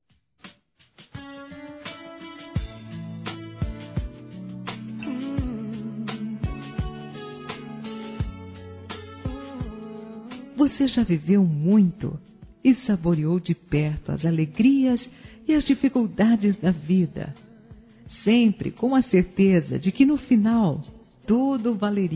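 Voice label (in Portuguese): parabéns pelo netinho(a) para a avó, feminino; Telemensagens; Parabenização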